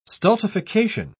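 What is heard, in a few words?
"stultification", English, uk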